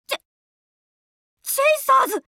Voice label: title jp; chasers; bellona